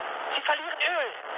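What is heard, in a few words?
German damaged oil mood med, speech; War Thunder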